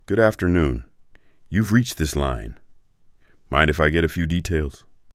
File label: formal